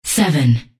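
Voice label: "seven", English; hl2dm; unreal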